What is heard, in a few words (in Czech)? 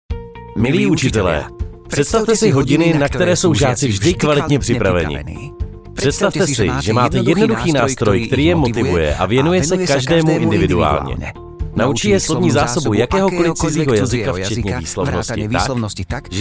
Profesionální mužský hlas